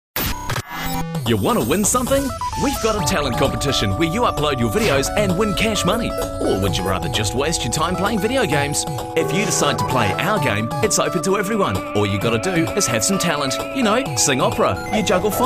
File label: CORPORATE